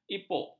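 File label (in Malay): "ipuk", Malay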